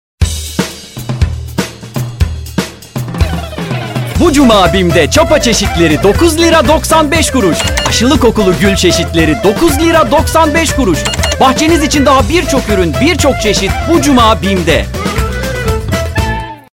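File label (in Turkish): reklam, Turkish; bim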